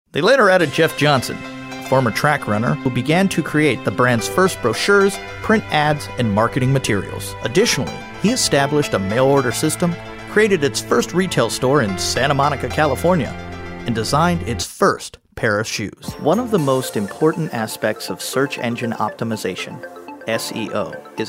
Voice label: Narration